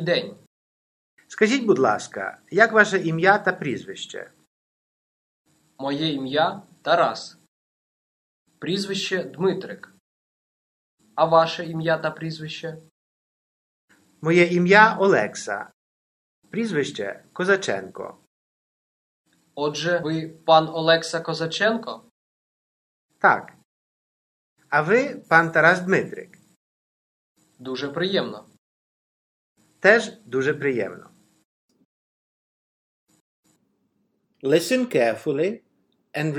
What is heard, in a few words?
formal conversation, Ukrainian